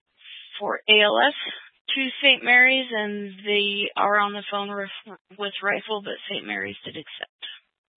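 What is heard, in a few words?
County Fire, Scanner audio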